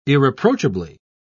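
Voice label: "irreproachably", British English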